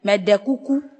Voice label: "please", Ewe